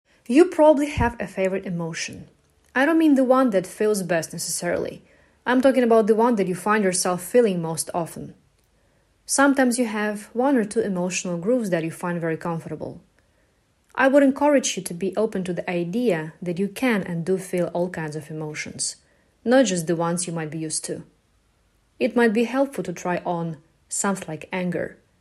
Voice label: Eng Audio

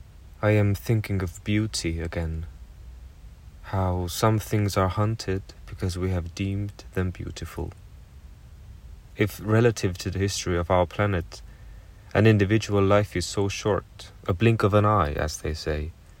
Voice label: ENG

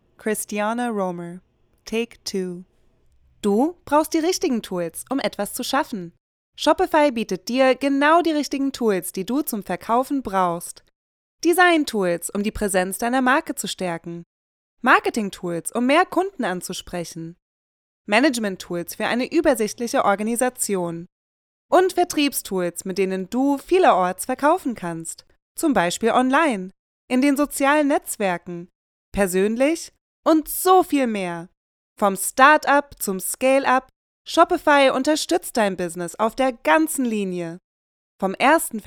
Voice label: German Commercial Playful; Shopify

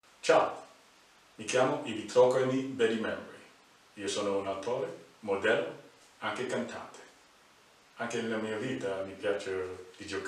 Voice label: ita

